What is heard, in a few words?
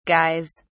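"guys", British English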